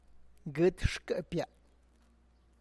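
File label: Tlacochahuaya Zapotec; my belly button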